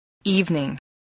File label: "evening", voice, english